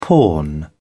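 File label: "pawn", British English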